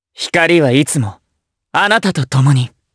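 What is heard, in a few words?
Victory, Japanese; Lucias; kingsraid; jp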